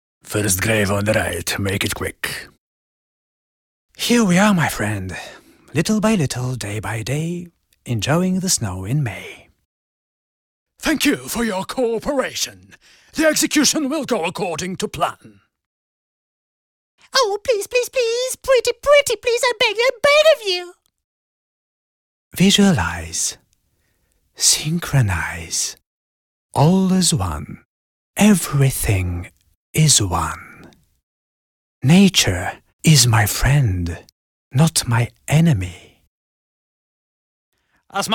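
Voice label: Bulgarian, Characters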